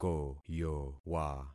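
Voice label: "koyowa", concatenated syllables; Affixing experiment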